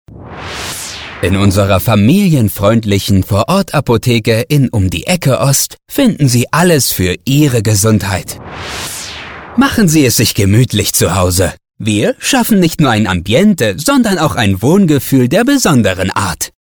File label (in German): vertrauensvoll heimelig